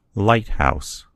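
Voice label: "lighthouse", US English; gcompris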